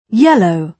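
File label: "yellow", British English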